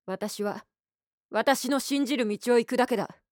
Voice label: lady cool